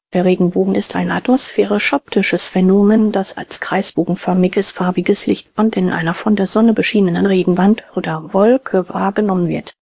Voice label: speaker, de_DE; piper voices